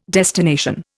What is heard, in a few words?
"destination", Indian